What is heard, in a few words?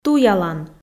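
"туялан", Komi, female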